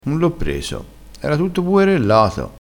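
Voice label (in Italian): "buerellato", dialettale crespinese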